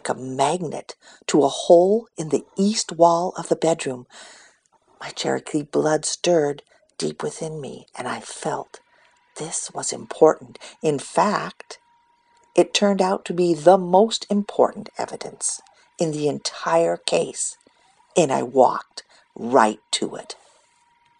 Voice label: Towels In Dryer Reading